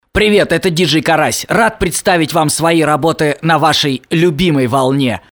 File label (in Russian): Джингл